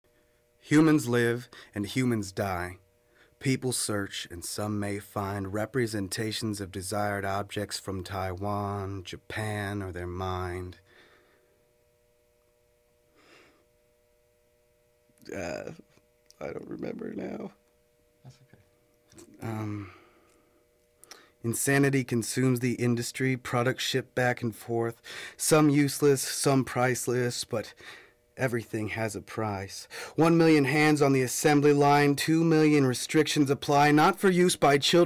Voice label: ohio poetry; usa; dialect samples

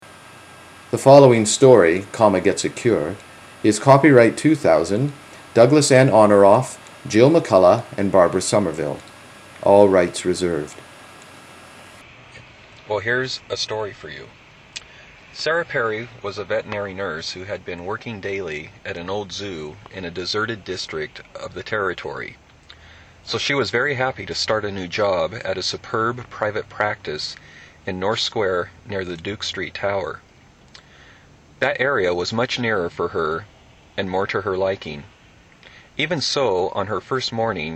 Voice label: washington common, dialect samples, usa

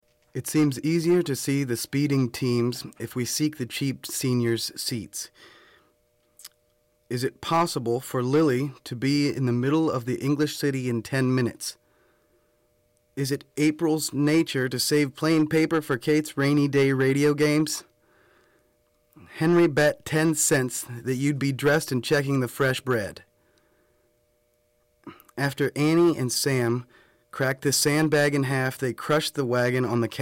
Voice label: ohio practice, dialect samples, usa

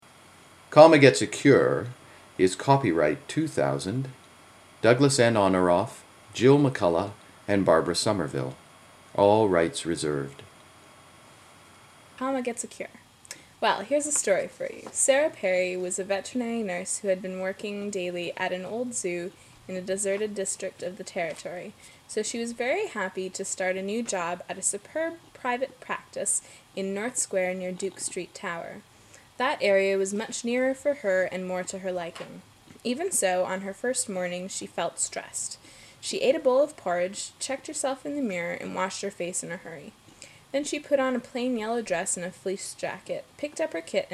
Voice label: BC Burnaby Common Text, Canada; British Columbia; Vancouver, British Columbia; A stay-at-home dad in his mid-thirties.

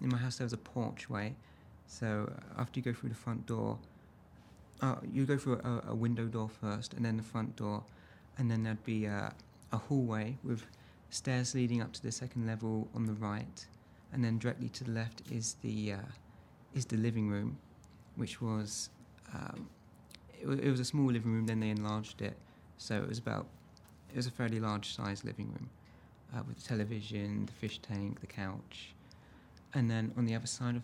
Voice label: London, dialects; england; dialect samples

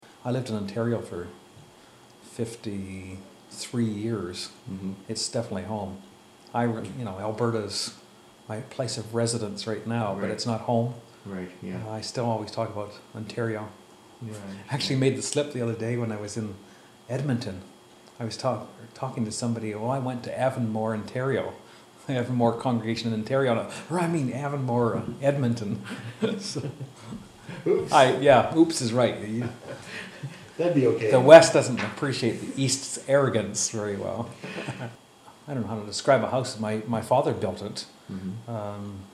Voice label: Canada Ont Practice, dialect samples; Ontario

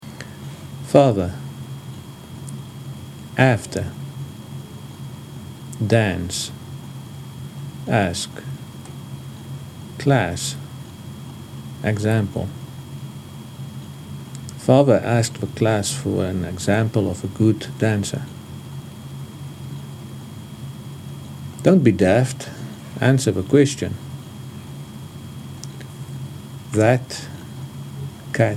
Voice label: South Africa Practice, dialect samples

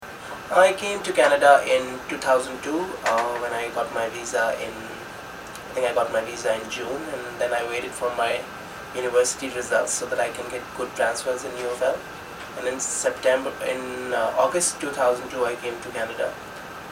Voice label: India New Delhi Conversation; asia; Natural Conversation

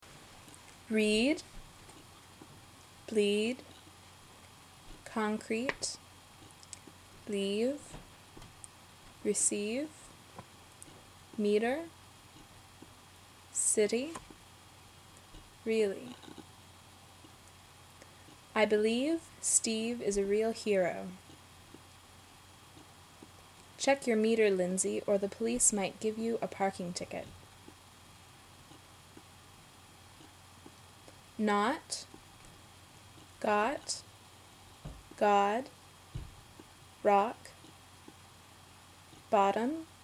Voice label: Burnaby Practice, dialect samples, canada; Vancouver, British Columbia; A stay-at-home dad in his mid-thirties.

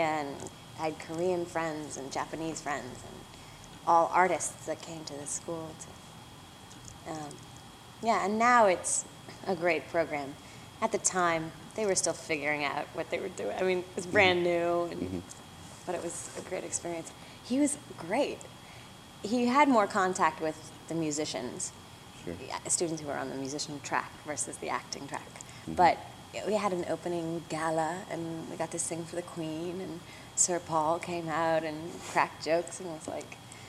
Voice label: American Natural Conversation, USA; dialect samples

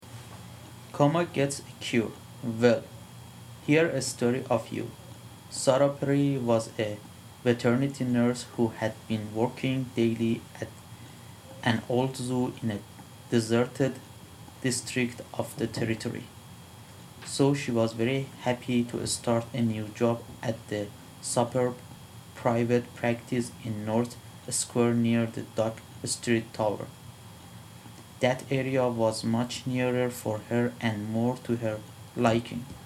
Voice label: Iran Common, dialect samples, Middle East

